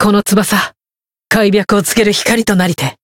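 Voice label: variable chant, Japanese; clarte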